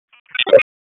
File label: Raleigh Police Southwest District Tac, p25; wake